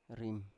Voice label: "rim", Biak